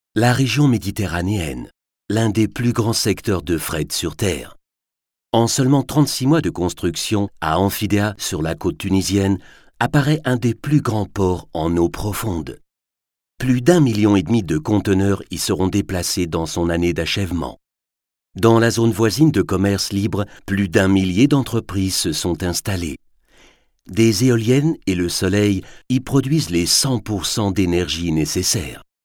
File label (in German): Neue Energie Imagefilm; Neckermann